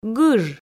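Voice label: "гыж", Komi, female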